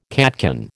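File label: "catkin", American English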